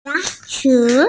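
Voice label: "ya2chi3", Mandarin Chinese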